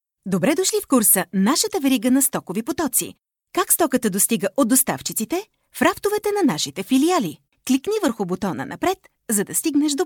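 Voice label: Bulgarian, female, eLearning; voice over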